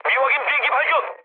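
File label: Korean enemy detected mood med, speech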